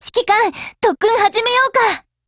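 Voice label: HELLO, Japanese; GF Alarm; NS2000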